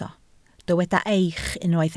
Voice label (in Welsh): "eich", Welsh